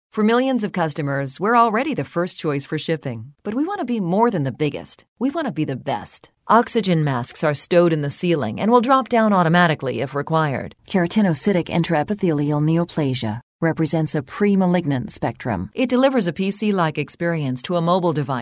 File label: english us f narration, voice demos